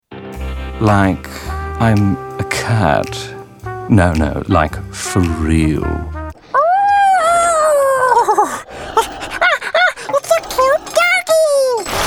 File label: animation VO